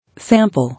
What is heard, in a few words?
"Sample", English